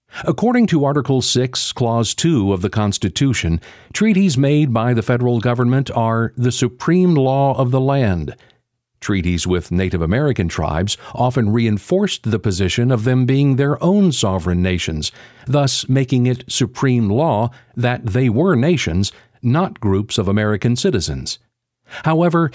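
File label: Narration, male voice talent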